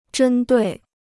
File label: "针对", Chinese